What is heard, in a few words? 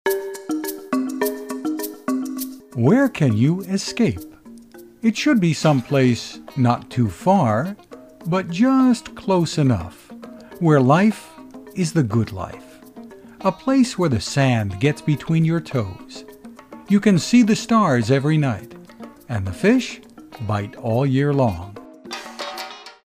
Warm